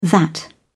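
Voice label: "that", British English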